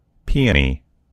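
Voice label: "peony", American English; gcompris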